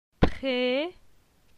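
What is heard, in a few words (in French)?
"Pre", French; phonetique; la syllabe e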